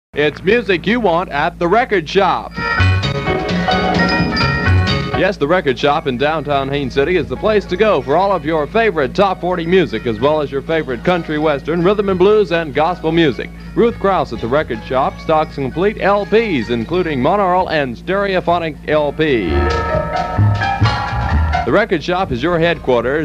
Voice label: commercial; WHAN; The Record Shop